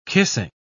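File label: "kissing", British English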